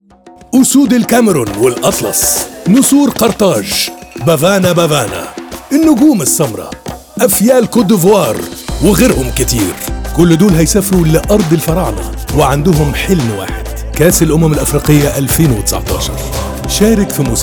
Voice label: EGYPTAIR arabic RADIO